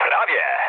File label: bomb failure mood low, speech pol; War Thunder